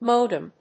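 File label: "modem", English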